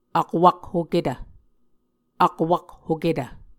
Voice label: "akwakhoketv", muscogee; Mvskoke Words with Voicing Consonants; A Dictionary of Creek/Muskogee; SIL International

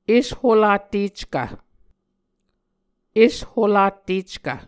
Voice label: "esholatteckv", Muscogee

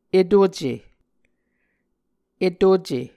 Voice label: "etuce", muscogee

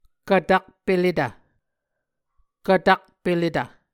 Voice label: "kvtakpeletv", Muscogee

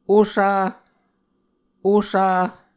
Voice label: "osa", Muscogee

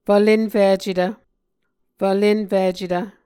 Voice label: "fvlenficetv", muscogee

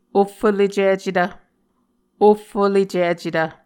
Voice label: "ohfolecicetv", Muscogee

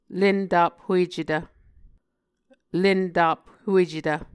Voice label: "lentaphuecetv", Muscogee

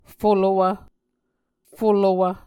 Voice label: "folowv", muscogee

